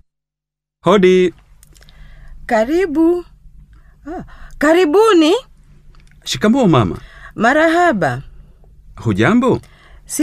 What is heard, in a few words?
How Are You Dialog, Swahili; Teach yourself Swahili audio